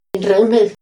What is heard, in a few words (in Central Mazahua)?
"nrra̷jna̷", Mazahua; INALI; AprendeMazahua